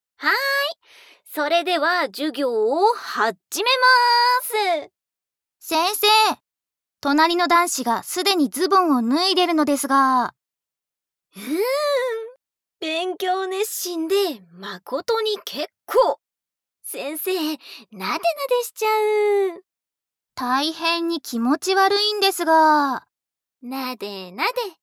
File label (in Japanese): 囁かれながら; クラスメートに囁かれながら、ローションたっぷり亀頭攻めオナニー